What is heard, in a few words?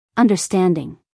"understanding", us female